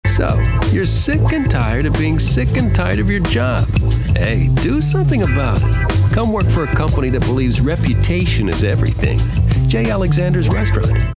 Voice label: cool jazzy